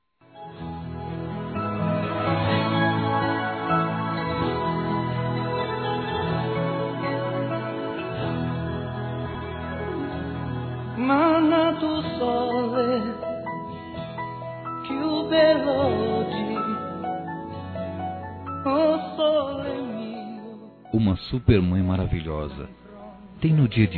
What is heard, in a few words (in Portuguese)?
Aniversário Avó Masculino; Aniversários; Aniv Familiar